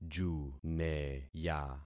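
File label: "juneya", concatenated syllables; Affixing experiment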